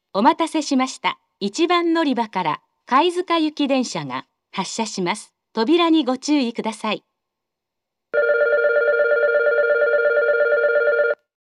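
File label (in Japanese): 発車-貝塚行（当駅始発）, 1番のりば放送; 福岡市地下鉄 空港線・箱崎線 中洲川端駅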